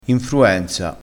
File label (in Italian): "infruenza", vocabolario dialettale